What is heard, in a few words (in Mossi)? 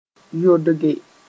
"yodge", moore